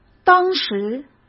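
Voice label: "当时", Mandarin Chinese; chinese-flashcards; hsk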